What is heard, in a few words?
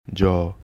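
"Ja" Sambalpuri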